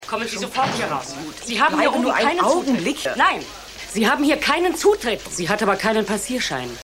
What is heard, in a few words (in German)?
Wachfrau, Stimmproben